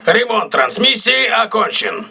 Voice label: voice message transmission repaired, crew ships russian